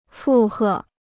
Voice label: "附和", Chinese

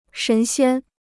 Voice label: "神仙", Chinese

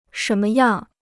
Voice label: "什么样", Chinese

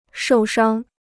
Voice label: "受伤", Chinese

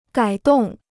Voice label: "改动", Chinese

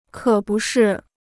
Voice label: "可不是", Chinese

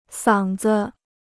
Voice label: "嗓子", Chinese

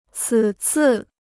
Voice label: "此次", Chinese

